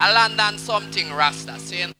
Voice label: London Vox; Jungle; Vocals